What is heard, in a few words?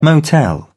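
"motel", British English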